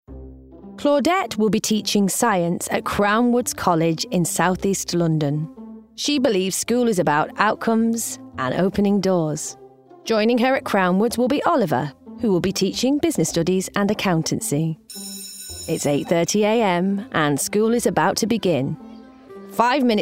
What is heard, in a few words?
Narrative; Young Teachers